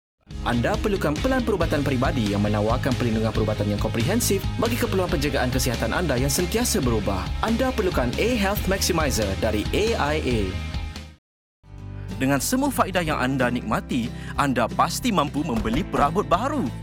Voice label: Malay Corporate